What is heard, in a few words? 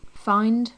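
"find", British English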